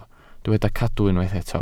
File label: "cadw", Welsh